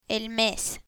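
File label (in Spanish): "el mes", Spanish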